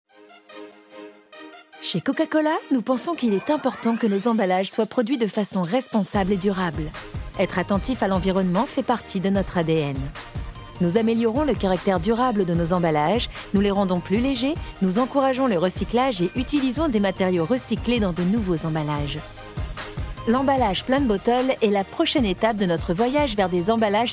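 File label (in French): souriante douce, voix off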